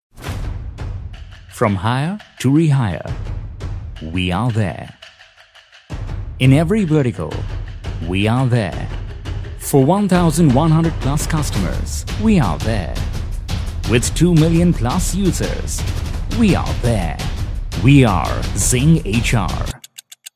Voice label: English American